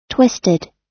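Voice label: "twisted", US English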